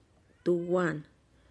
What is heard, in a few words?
"tuwan", i dunno interjection, tlacochahuaya